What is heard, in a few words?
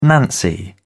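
"nancy", British English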